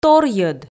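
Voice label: "торйӧд", Komi, female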